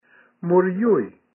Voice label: Ashamed Mereyuy He Is Ashamed Embarrassed, Kumeyaay